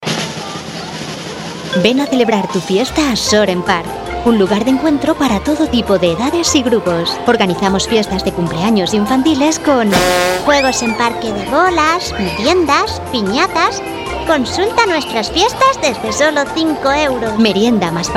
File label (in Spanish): comercial